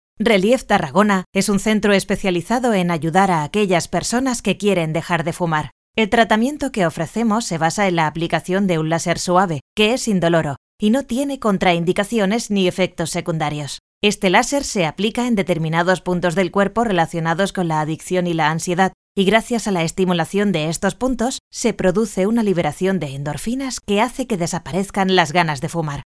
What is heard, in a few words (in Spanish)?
locutora castellana